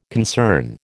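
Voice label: "concern", American English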